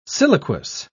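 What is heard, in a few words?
"siliquous", British English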